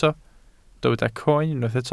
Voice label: "croen", welsh